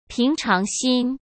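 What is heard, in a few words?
"平常心", Mandarin Chinese; hsk; chinese-flashcards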